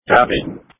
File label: Police Tactical Encrypted